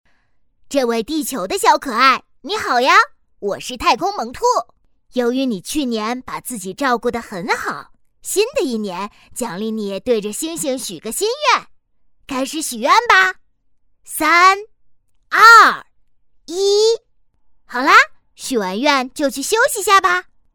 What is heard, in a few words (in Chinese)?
女 角色 男童声; 太空萌兔